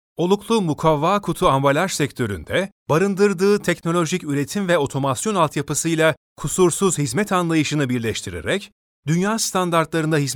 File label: locutor turco turkish voiceover